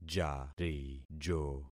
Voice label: "jarijo", concat syllables; Affixing experiment